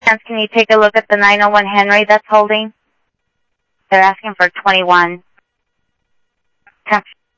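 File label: Sheriff East County, scanner audio trunk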